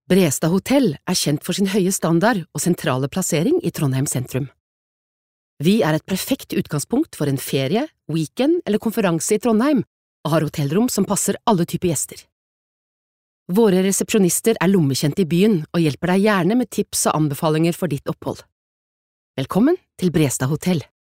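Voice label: no informativ, Norwegian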